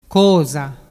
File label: "cosa", dialettu